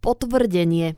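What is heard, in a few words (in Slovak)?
"potvrdenie", Slovak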